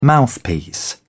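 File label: "mouthpiece", British English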